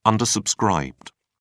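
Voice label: "undersubscribed", English, British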